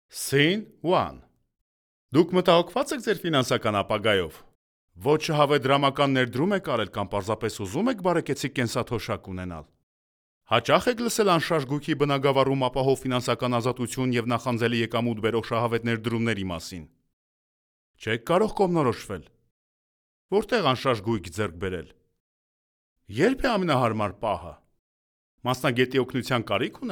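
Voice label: Armenian, male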